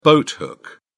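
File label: "boathook", British English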